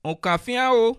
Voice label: excuse me, bassa